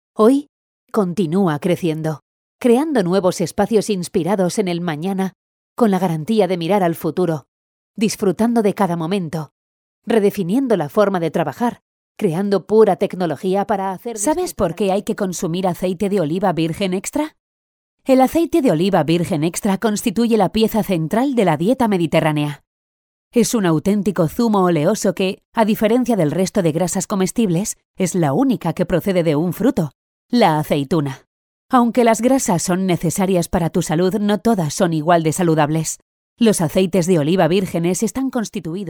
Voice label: spanish female